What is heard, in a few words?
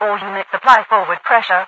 all units apply forward pressure, overwatch radio voice; Source Engine; video game; npc